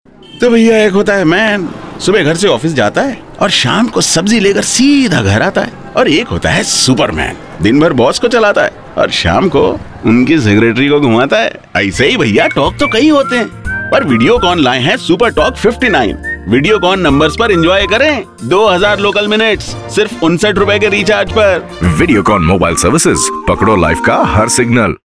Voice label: Superman character, male voice; videocon